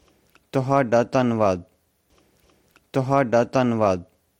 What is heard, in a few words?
Thank you, punjabi